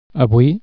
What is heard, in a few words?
"today", catalan